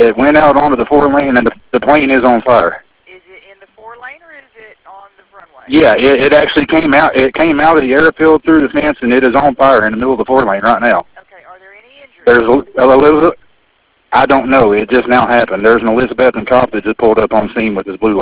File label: 911 call; dale earnhardt jr plane crash